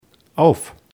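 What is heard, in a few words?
"auf", German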